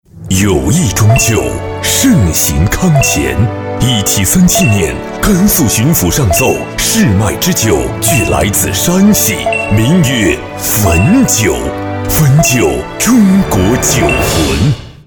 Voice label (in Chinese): 男国 广告 酒类 大气; 汾酒